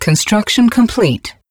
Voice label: advisor notification construction complete, pacifist; Stellaris; ethics